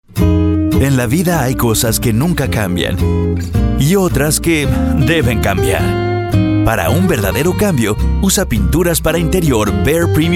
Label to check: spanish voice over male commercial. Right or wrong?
Right